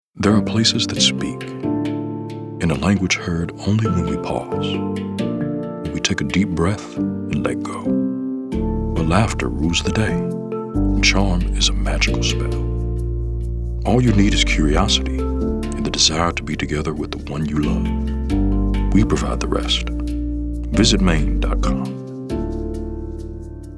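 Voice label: Gentle Storyteller, Male Voice Actor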